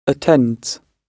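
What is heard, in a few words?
"attends", British English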